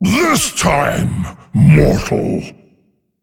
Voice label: Male Dragon Attack